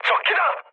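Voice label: Korean enemy detected mood med, speech; War Thunder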